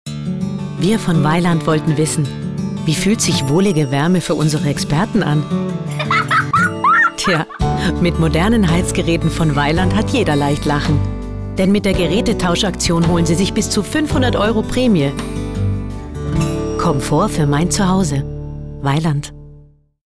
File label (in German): Frau OFF